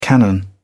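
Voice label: "cannon", British English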